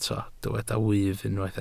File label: "wyf", Welsh